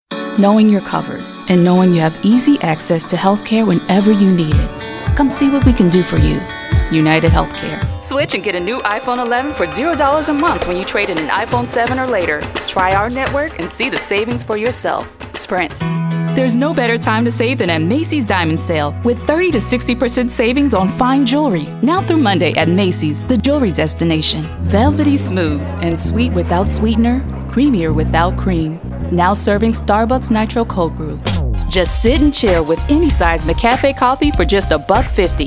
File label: comm VO